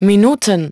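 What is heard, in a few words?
"minutes", English; iOS 4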